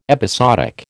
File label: "episodic", American